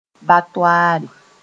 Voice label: "batoaare", moore; slaughterhouse